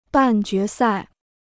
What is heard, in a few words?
"半决赛", Chinese